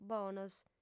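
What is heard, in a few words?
"bonos", female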